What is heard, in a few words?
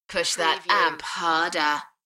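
UK female, DJ vocals; Push that amp harder; beanstalk audio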